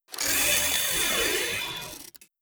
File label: robot reptilian greetings; Stellaris; synthetic dawn